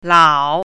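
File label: "lao3", Mandarin Chinese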